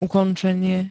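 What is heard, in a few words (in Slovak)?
"ukončenie", Slovak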